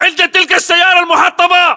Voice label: landmark near car wrecked, battle chatter, english